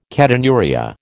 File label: "ketonuria", American